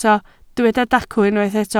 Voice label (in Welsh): "dacw", Welsh